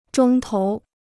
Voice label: "钟头", Chinese

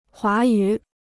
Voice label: "华语", Chinese

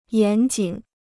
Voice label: "严谨", Chinese

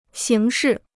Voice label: "刑事" (xíng shì), Chinese

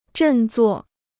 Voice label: "振作", Chinese

